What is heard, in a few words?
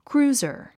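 "cruiser", English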